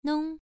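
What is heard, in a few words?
"nong", tone 1, pronunciation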